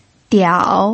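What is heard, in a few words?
"diao", Mandarin Chinese, third tone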